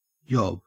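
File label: "yob", Australian English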